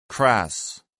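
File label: "crass", English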